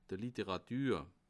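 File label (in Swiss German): "literatur", Bas Rhin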